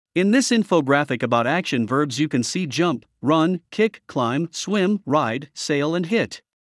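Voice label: Action Verbs, English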